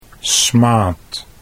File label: "smaad", Dutch